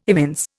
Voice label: "evince", Indian